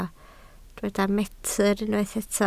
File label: "metr", Welsh